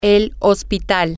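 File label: the hospital, Spanish, female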